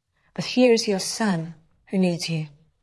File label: Voice Acting es F